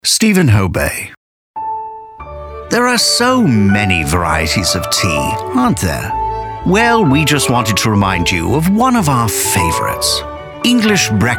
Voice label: British

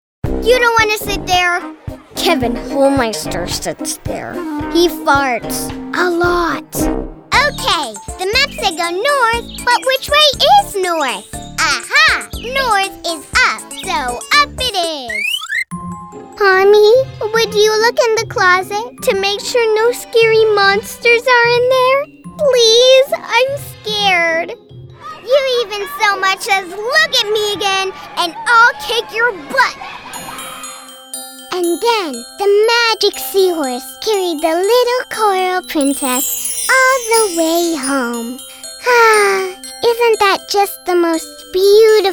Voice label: Animation

